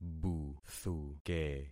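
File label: "buthuke", concatenated syllables; Affixing experiment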